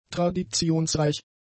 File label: "traditionsreich", German; adjectives